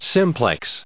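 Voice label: "simplex", US English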